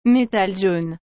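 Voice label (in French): "métal jaune", French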